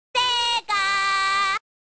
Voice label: Logo (Cute Voice Version); Sega